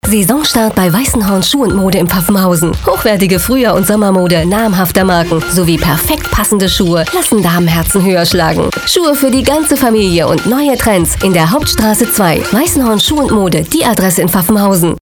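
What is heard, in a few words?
German, female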